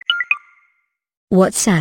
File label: Toques Whatsapp Voice